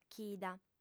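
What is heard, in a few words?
"chida", female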